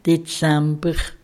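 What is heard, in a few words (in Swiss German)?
"Dezamber", Alsatian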